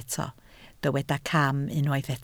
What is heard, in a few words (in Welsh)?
"cam", Welsh